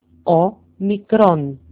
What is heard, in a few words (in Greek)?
"omikron", greek; όμικρoν literally= o small